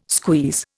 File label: "squeeze", Indian